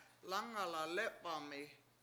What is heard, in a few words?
lAngala7 lEp7ami7 I went home, Washo